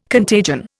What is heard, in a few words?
"contagion", Indian